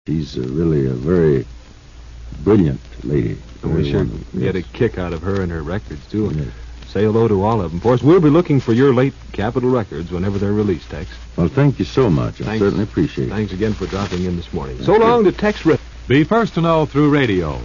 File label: Show Close Station ID; KHQ Spokane